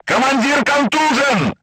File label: voice message commander contused, crew tanks russian